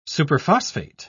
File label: "superphosphate", en uk